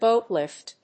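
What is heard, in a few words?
"boat lift", English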